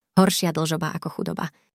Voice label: Slovak; "Horšia dlžoba ako chudoba."; Text To Voice; DeepVoice AI